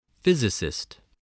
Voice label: "physicist", voice, English